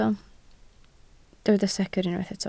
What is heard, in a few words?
"secr", Welsh